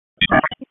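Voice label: FIRE Operations, p25; FIRE OPS 1; wake p25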